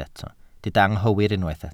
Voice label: "anghywir", Welsh